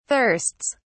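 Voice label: "thirsts", British English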